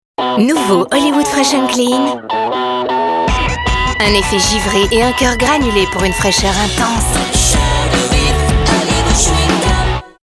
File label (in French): FR pub TV; HOLLIWOOD freshclean